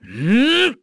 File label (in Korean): Vox Casting, kr; Zafir; kingsraid